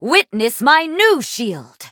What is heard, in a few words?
battle, en; cookie run